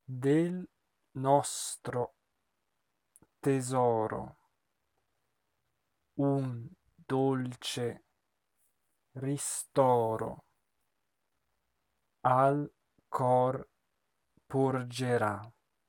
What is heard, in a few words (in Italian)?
monotono; Così fan tutte